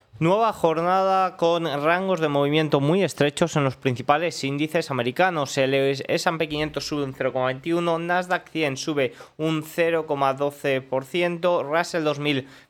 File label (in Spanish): radio